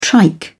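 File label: "trike", British English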